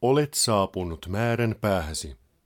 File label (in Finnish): Arrive, Finnish male; Opas